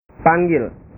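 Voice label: "panggil", Indonesian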